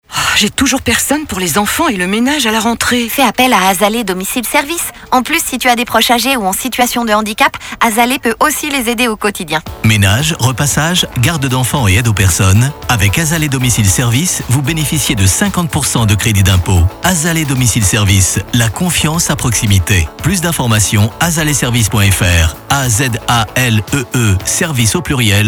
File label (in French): spot radio; Totem